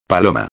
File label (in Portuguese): "paloma", espanhol